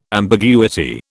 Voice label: "ambiguity", British